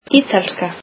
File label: "Italian", Icelandic